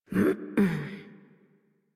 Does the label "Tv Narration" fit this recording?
No